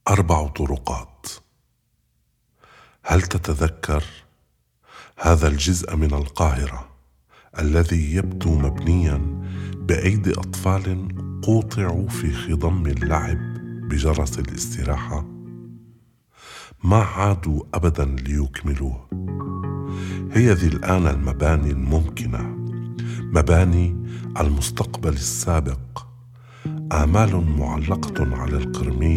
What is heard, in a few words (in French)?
arabe; 4 voies